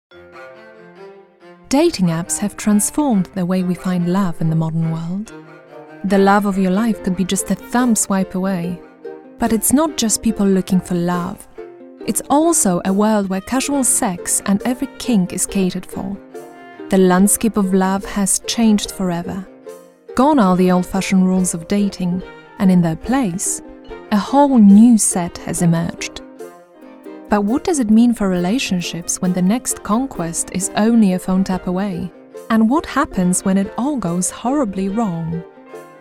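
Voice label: Polish, Accented English; GoLocalise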